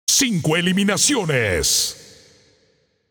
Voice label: Announcer Spree Classic 5 Kills; paladins